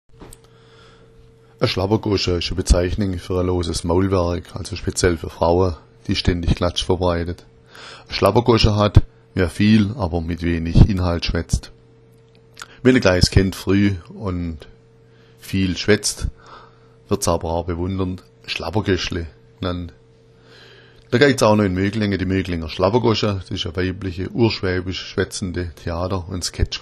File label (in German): schlabbergosch, schwaebisch